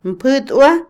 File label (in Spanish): "bicicleta", Otomí, mujer; Moderno; Vocabulary; INALI